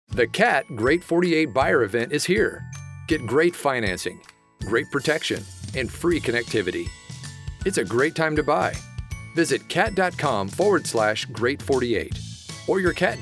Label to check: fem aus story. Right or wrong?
Wrong